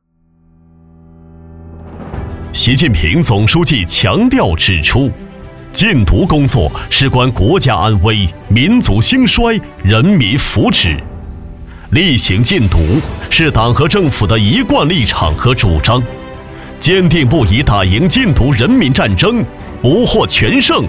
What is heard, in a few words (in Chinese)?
公安大气